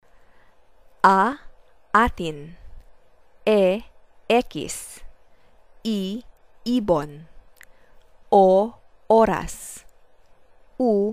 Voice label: aeiou examples, tagalog vowels pronunciation